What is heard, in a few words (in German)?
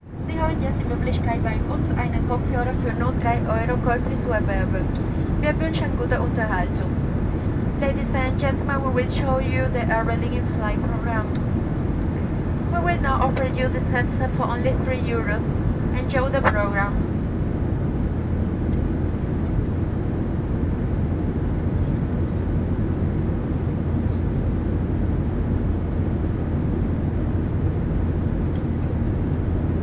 flugzeug durchsage